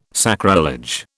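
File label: "sacrilege", British English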